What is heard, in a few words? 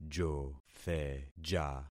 "jotheja", concat syllables; Affixing experiment